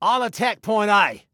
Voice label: ATTACK, Male